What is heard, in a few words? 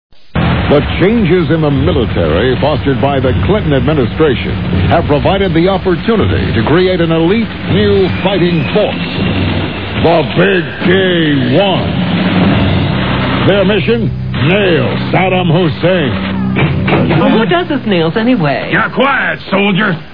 Commercials; Freekin Weird Audio